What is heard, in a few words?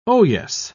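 "oyes", British English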